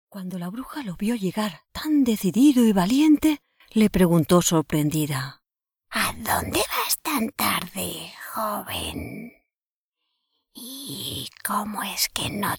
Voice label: Children features; voice; voice-over